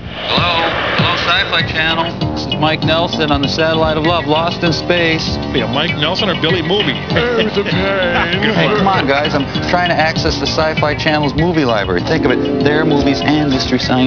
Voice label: promo scifi